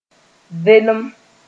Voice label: "velem", moore

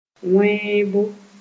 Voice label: "wẽebo", moore

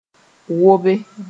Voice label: "wobe", moore

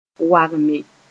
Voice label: "wagme", Mooré; fail to produce grains

